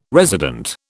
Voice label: "resident", British